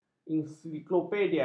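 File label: "ensiklopedia", Malay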